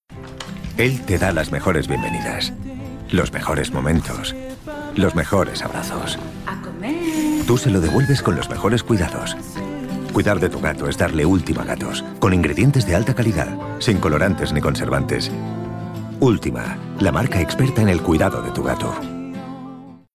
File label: male spanish food comercial; ideasonora